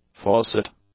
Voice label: "faucet", English

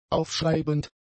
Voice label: "aufschreibend", German; adjectives

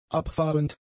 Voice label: "abfahrend", German; adjectives

